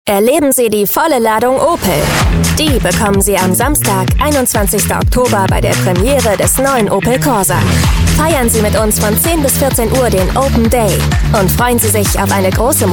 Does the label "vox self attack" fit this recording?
No